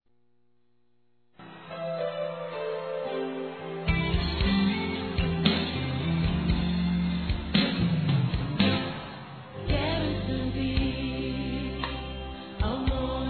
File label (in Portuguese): Aniversário Neutro Evangelico FEMININO